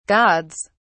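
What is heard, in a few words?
"gods", British English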